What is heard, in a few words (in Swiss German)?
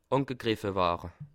"ware", alsace